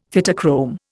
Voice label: "Phytochrome", Indian; Dictionary